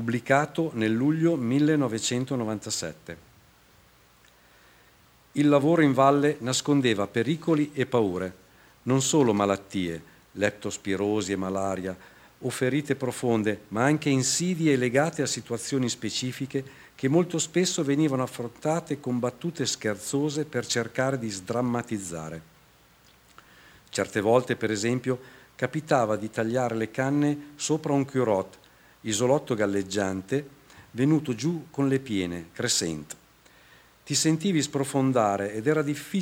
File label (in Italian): Testimonianza; tratta dalla pubblicazione terra e acqua